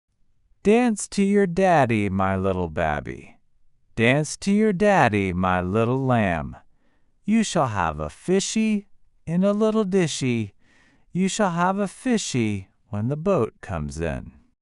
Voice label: male US; Dance to your Daddy